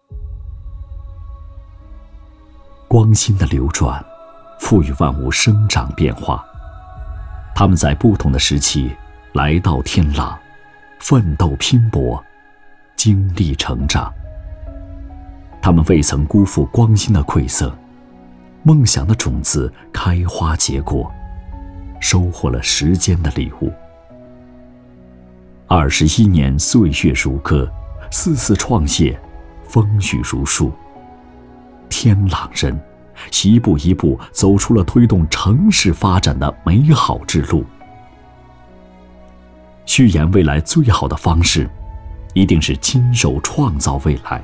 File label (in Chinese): 企业宣传; 天朗